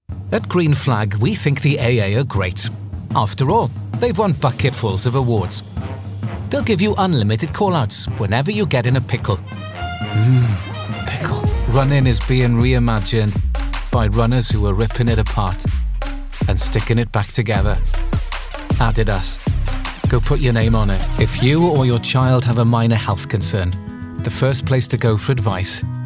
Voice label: male English Welsh Welsh accent commercial compilation; devine voices